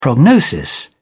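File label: "prognosis", British English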